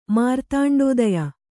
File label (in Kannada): "ಮಾರ್ತಾಂಡೋದಯ", Kannada